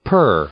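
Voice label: "per", English, male; preposition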